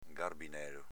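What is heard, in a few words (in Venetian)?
"garbinelo", Veneto dialect; s.m. venticello fresco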